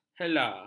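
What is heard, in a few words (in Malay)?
"hela", Malay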